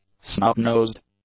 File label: "snub-nosed", english; adjectives